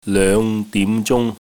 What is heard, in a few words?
"loeng5 dim2 zung1", cantonese